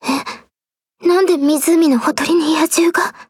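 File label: start, Japanese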